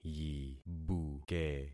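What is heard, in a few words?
"yibuke", concatenated syllables; Affixing experiment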